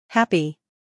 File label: "happy", English